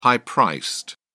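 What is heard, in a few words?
"high priced", English, British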